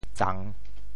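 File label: "tsang3", Teochew; 潮州; 未食五月粽，破襦毋甘放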